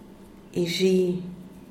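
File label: "ixhi", mañana, Zapotec, Díaz Ordaz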